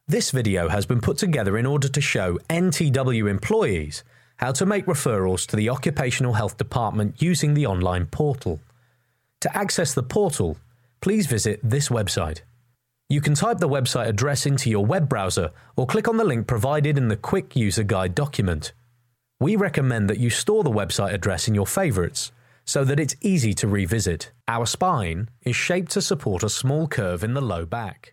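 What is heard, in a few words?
British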